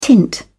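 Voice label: "tint", British English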